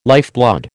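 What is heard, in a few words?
"lifeblood", American English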